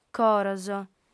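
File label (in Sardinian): "coros", female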